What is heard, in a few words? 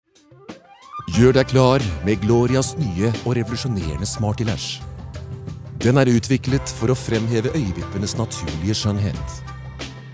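Norwegian soft sell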